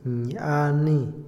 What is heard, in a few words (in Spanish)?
"preguntar", Otomí, hombre; Habla; INALI